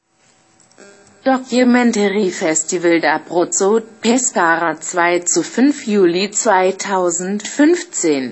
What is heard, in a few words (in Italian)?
tedesco